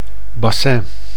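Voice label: "bassin", Dutch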